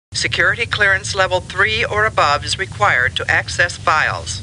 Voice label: Computer Voice; Star Trek